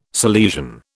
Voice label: "Salesian", British